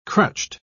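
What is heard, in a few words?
"crutched", British English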